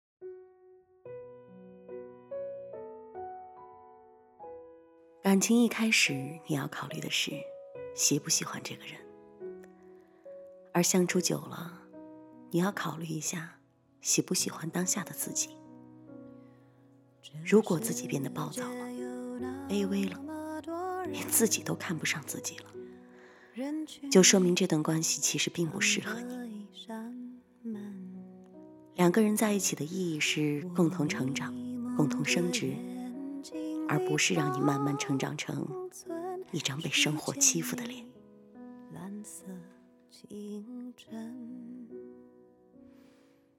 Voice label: 电台小试音

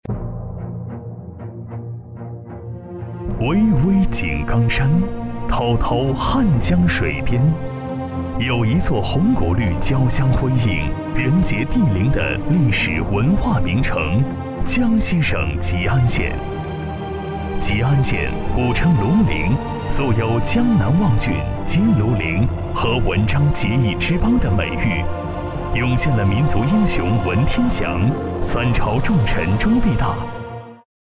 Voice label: 专题娓娓道来

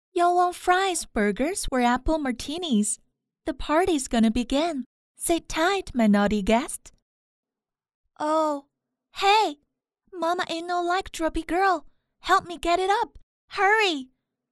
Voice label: 美式女英文游戏

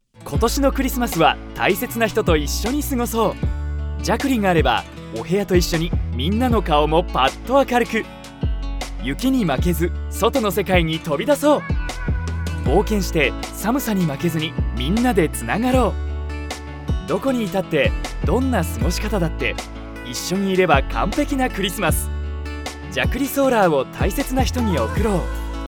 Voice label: 日语 男声 老师; 日语男声号老师

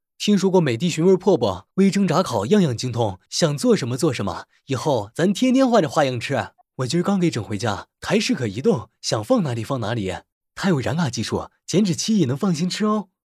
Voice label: 广告 男; 美的

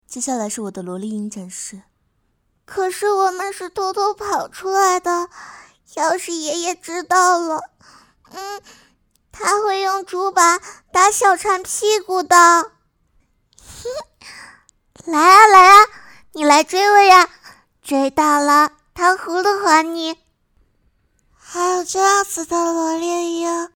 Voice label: 简单萝莉音

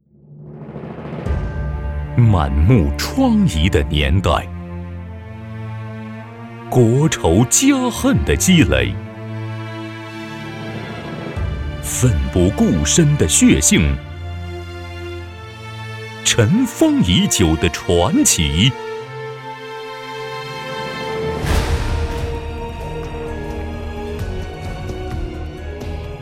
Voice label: 预告片; 湘西老屋

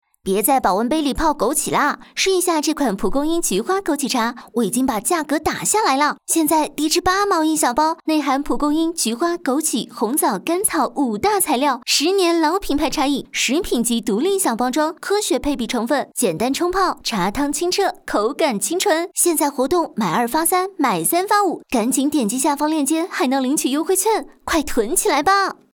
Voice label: 广告风格可爱

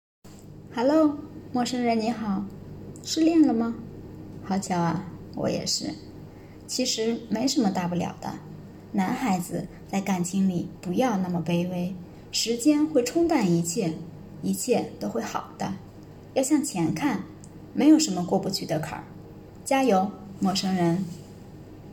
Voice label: 电台文章 试音

